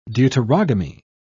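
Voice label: "deuterogamy", British English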